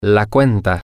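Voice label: bill, Spanish, male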